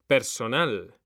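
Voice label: "personal", Spanish, male; mekanta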